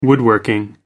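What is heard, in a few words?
"woodworking", British English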